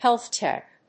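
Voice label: "health check", English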